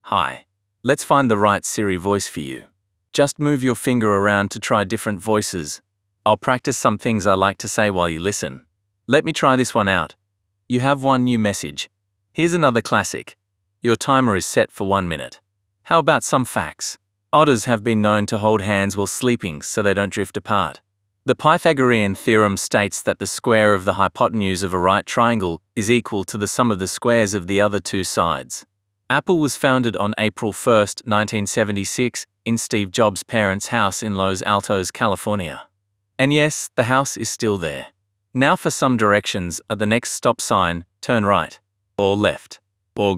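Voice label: Australian English; iOS 26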